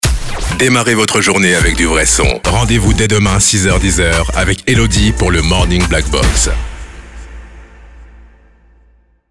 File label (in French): Habillage TV Radio Morning FR; Blackbox